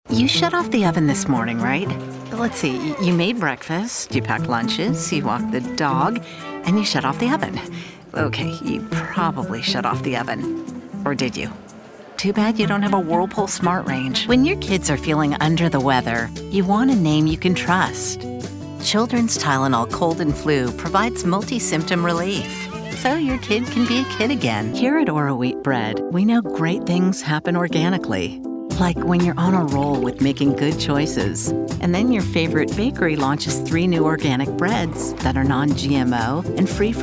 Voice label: american female commercial